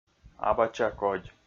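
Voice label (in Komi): абача кодь, Komi, male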